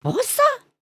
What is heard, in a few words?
group talk; emotes